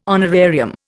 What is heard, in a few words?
"honorarium", Indian